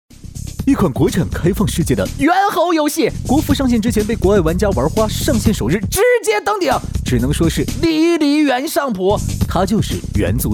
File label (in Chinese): 信息流 王大锤风格